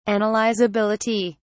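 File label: "analysability", British English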